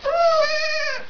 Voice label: kids "Ma"